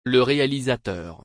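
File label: "Le réalisateur", French; Le réalisateur (m.); کارگردان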